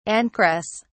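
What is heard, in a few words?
"ancress", English, British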